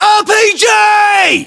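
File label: threat rpg, battlechatter British; english; uk; localized english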